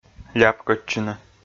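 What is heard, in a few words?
"ляпкӧдчыны", Komi, male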